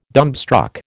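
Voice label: "dumbstruck", American English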